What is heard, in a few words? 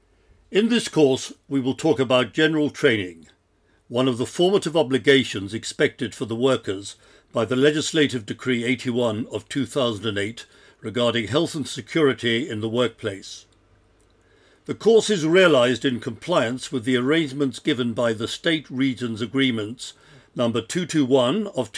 elearning, voce